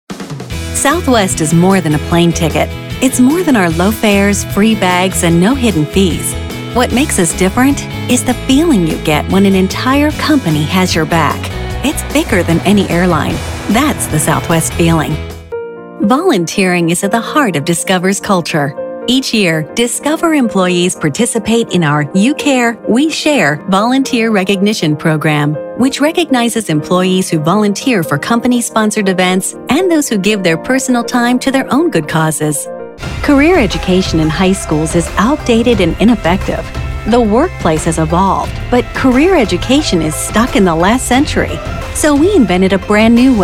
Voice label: Corporate Narration

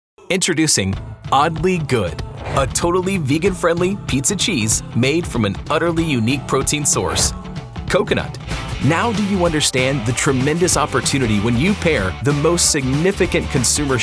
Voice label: Narration

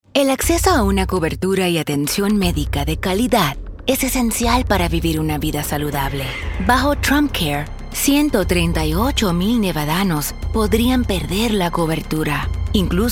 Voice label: Political Spanish

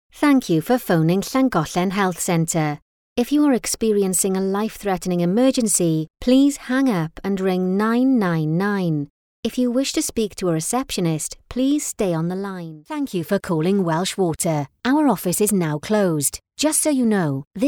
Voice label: IVR Telephony

